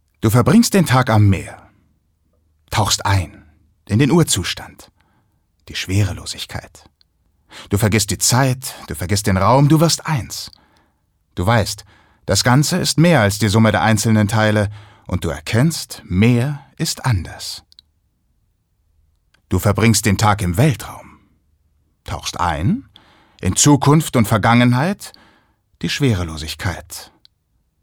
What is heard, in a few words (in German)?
Schauspiel Neutral